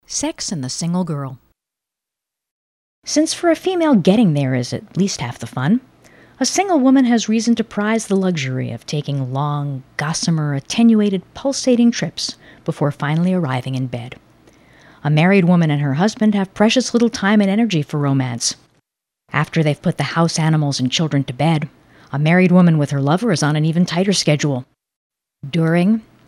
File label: Audiobook, voiceovers